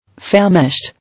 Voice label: "Famished", English